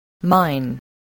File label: "mine", spelling